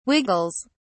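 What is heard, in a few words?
"wiggles", British English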